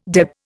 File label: "deb", Indian